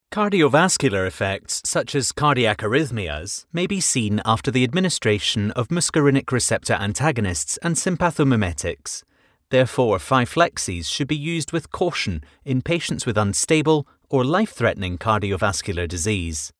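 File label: British English, Scottish, male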